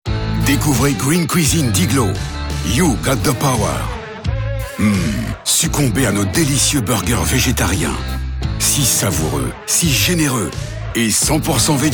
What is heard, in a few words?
tv low deep dynamic gourmet; iglo